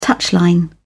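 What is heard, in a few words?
"touchline", English, British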